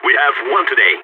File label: radio bot end solid, balkan; vo